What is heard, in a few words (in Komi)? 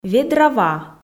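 "ведра ва", female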